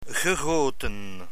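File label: "gegoten", Dutch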